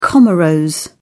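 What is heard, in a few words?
"comoros", British English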